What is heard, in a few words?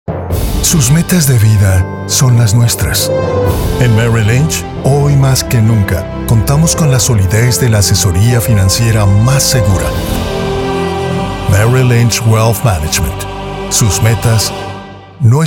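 spanish latin america; Foreign Languages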